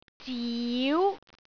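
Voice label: "ziu2", Cantonese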